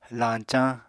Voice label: "lañcañ", Apatani